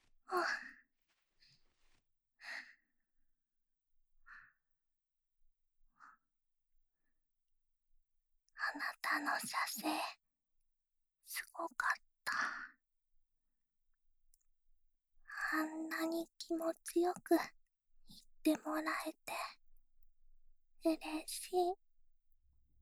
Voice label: 同时低语（两耳）; 露琪娅和夏洛特的同时低语（两耳）

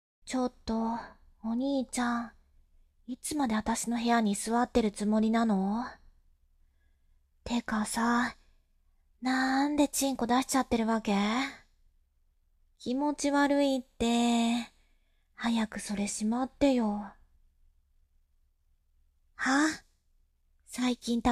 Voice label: 用強烈的連續呼喊攻擊讓你射精的妹妹, ASMR; 「把精液射出來!」

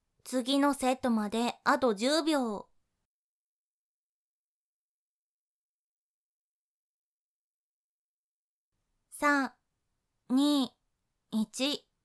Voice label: 距离下一组还有10秒, 女生为你进行健身计数的语音 少女; 休息时间用

